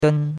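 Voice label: "den1", Chinese; Cours-de-Chinois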